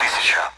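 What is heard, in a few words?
Speech Sound Russian Common AWACS Numbers; DCS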